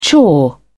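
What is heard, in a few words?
"chore", British English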